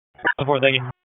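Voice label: Police Northeast District Dispatch, Raleigh; p25; wake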